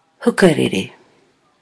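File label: "hukarere", Māori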